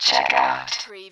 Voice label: Robot voice, checkout; lynne publishing